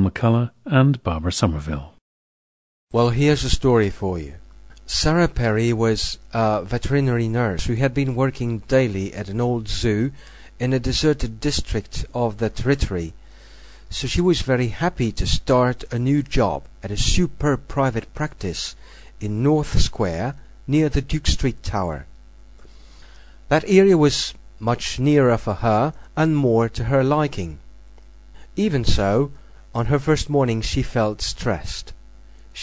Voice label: france, dialects